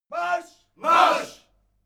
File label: military shout german "march"